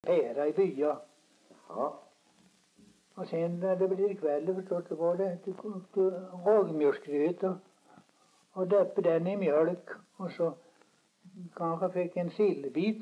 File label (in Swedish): dialekter; Folkminnen; Svenska sagor